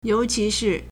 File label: "you2 qi2 shi4", Chinese